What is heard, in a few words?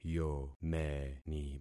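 "yomeni", concatenated syllables; Affixing experiment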